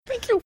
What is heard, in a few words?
cute thank you